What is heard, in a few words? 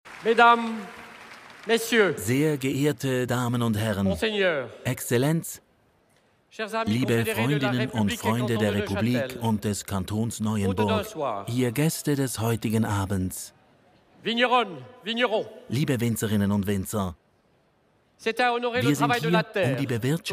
voiceover; fetedevignerons